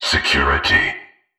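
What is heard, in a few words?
"security", male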